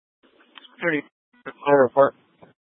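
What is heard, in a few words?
Incident Tac 3, Scanner audio recording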